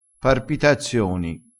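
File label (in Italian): "parpitazioni", dialettale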